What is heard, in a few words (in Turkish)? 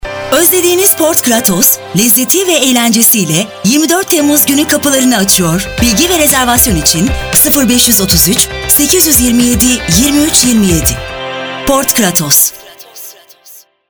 Telefon; Port Cratos